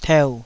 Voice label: "teu3", taiwanese Syllables